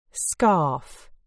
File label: "scarf", uk